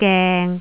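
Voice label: "geng3", Cantonese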